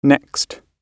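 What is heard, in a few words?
"next", British English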